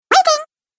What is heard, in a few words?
Waiting Cartoon Game Voice